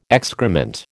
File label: "excrement", American